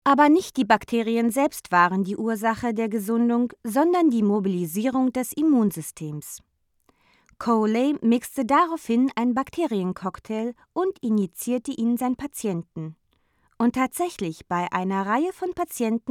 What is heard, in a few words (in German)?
elearning, deutsch frauen; sprecher